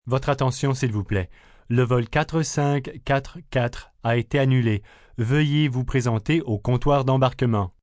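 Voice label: Depart French, Airport, PA, announcements, male, French; VoiceBox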